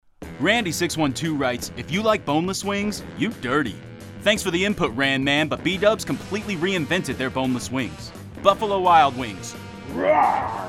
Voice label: commercial